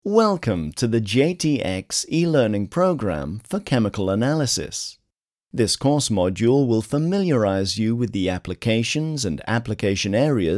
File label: eLearning Promo